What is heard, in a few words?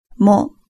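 "mo", Japanese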